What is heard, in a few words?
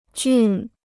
"俊", Chinese